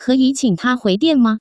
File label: qing hui dian, Taiwan Chinese; phone call